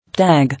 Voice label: "Tag", English